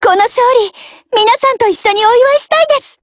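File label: Japanese, Voice; GF Alarm; RPD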